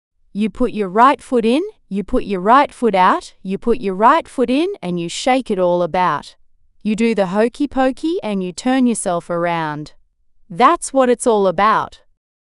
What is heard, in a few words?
fem aus